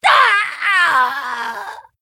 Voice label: Orc Death